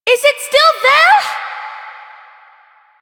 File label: Child